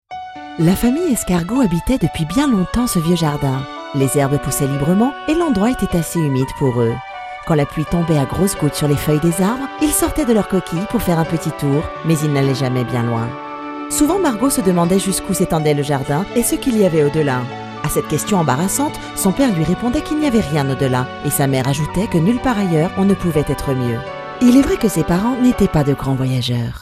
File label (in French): Souriant naturel; Margot l escargot